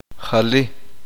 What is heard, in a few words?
uspanteko